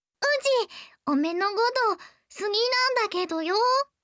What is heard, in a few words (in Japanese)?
好きです 山形; imas; 雪歩